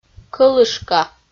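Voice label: "кӧлышка", Komi-Zyrian, female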